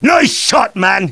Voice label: cheer; tf2demoman